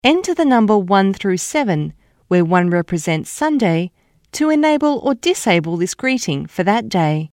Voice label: Aussie; קריינות